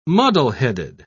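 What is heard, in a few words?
"muddleheaded", British English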